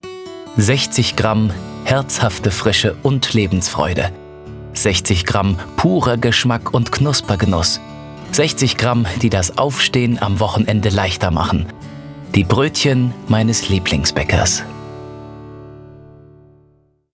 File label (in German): Werbung; Lieblingsbaecker